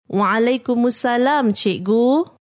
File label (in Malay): waalaikumussalam cikgu, male